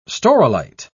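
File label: "staurolite", British English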